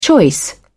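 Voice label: "choice", British English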